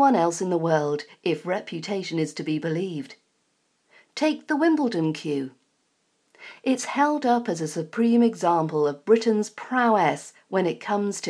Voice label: news article British accent pronunciation practice; queuing